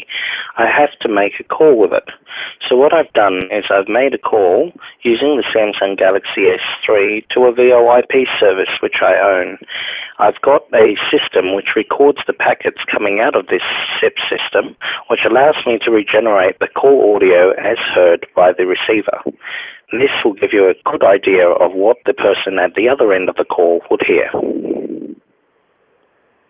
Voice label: SIP Test